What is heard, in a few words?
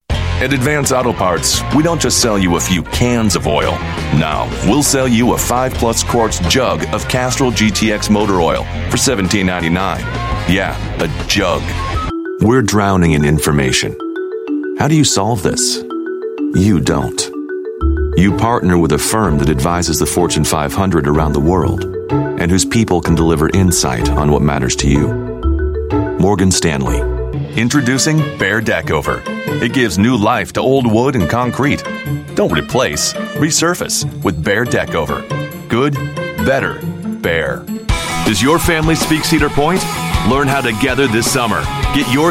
US English Commercial Reel, Male